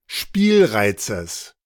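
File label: German "Spielreizes"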